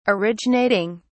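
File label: "originating", British English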